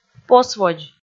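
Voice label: "посводз", Komi, female; kpv audio dict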